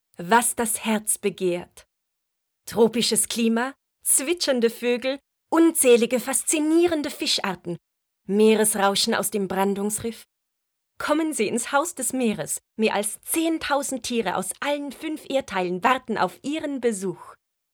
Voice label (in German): Werbung